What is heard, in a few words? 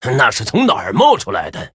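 Male, Chinese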